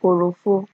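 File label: "korofo", Nigerian Pidgin, female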